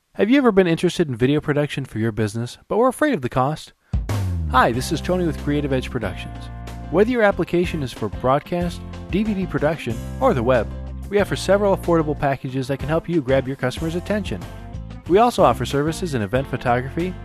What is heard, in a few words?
Radio Spot; CEP